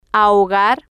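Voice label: "ahogar", Spanish, female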